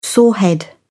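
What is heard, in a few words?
"sorehead", British English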